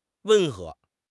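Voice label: "温和", Mandarin Chinese; HSK6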